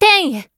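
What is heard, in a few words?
finish, Japanese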